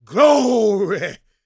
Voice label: preachin, gospel preacher; Spectrasonics; Vocal Planet Vol.1 Gospel; akai